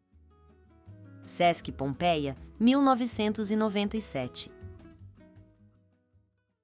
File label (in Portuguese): narracao; sesc pompeia